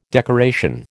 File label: "decoration", American